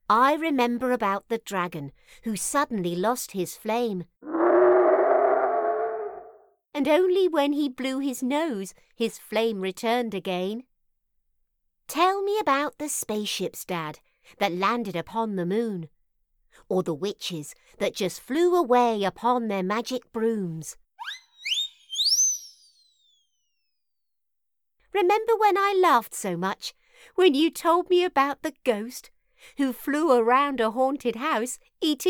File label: Childrens book with SFX